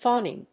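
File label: "phoneme", English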